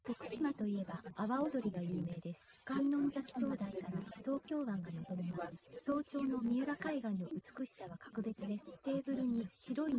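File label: female instantaneous source; SiSEC08; SiSEC underdetermined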